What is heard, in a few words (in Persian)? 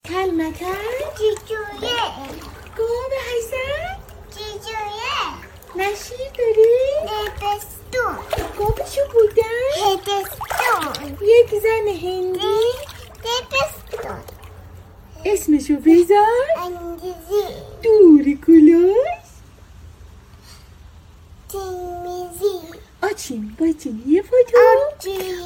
اتل متل توتوله بدون آهنگ